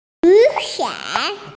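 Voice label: "umbrella", Mandarin Chinese, female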